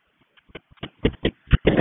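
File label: Fire Department HQ Dispatch; RFD HQ DISP; wake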